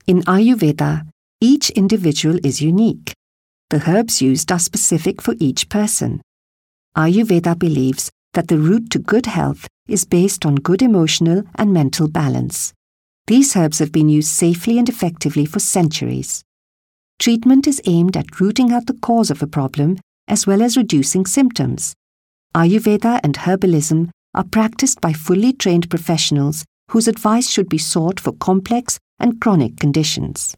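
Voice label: Hindi, accented English; voice demos; GoLocalise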